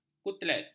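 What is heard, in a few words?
"kutlet", Malay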